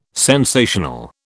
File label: "sensational", British